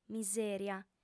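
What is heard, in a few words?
"misèria", female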